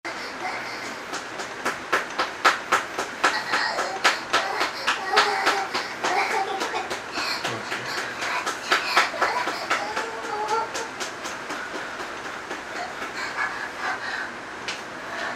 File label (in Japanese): えち音声サンプル音声; 18歳 女子専門学生を公園で声掛けて、10分でお持ち帰り！？【ストリートナンパ】えち音声